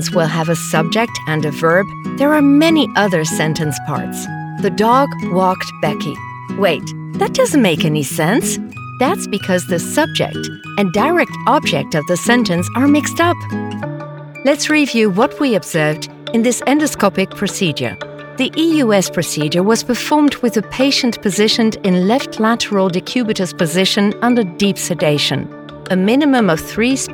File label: English E-Learning